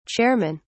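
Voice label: "chairmen", British English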